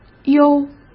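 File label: "优", Mandarin Chinese; hsk; chinese-flashcards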